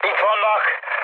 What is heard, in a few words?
enemy detected, speech hun; War Thunder